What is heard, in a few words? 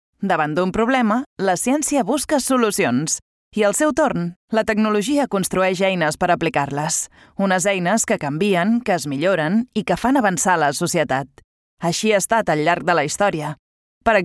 catalan explainer